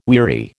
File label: "weary", American